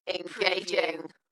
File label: UK female "Engaging" Dalekness; beanstalk audio